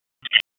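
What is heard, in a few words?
Police Spec Ops Tac, radio; Raleigh; Tac 4; wake; p25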